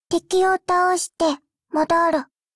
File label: warcry